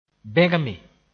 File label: "bẽgme", Mooré